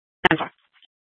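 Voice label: Police Department North Dispatch, scanner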